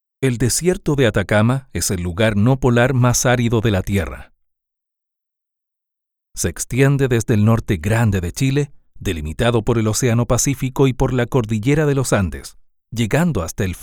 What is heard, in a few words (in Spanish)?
documental; atacama